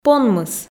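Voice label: "понмыс" Komi, female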